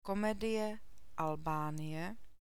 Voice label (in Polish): ie przyklady, czeski alfabet; komedie, Albánie; komedia, Albania